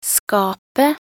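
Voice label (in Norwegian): "skapet", Norwegian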